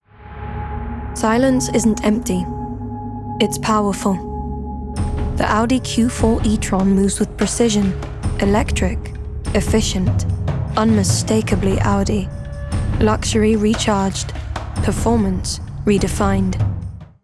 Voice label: RP ('Received Pronunciation'), Dramatic, Powerful, Smooth, Commercial; Audi